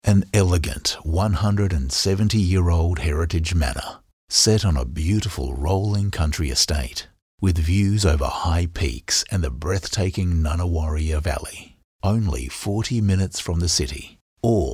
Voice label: Smooth Classy

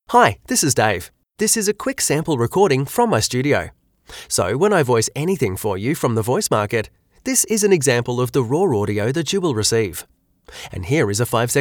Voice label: Studio Sound Check

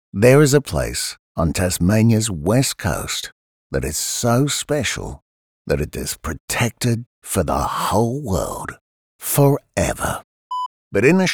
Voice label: CONVERSATIONAL

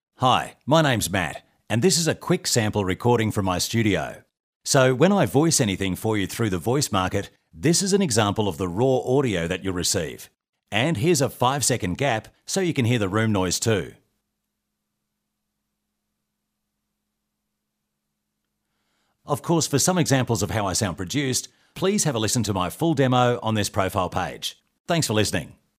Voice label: Studio Sound Check

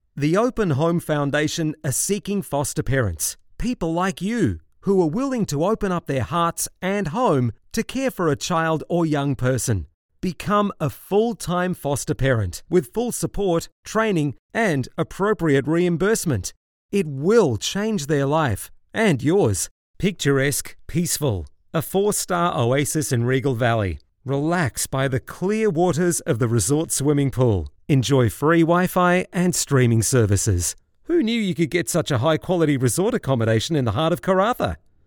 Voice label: Relaxed

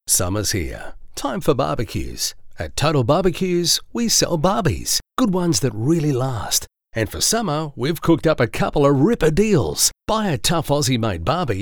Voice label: Retail Friendly

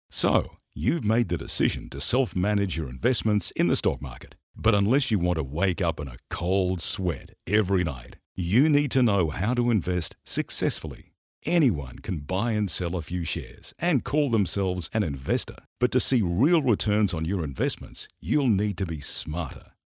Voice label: Conversational

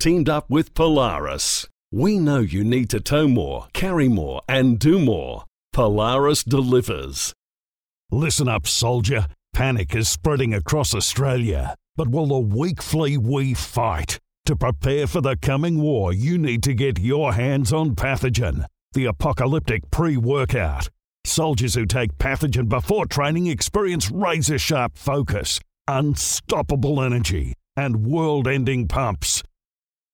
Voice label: Hard Sell

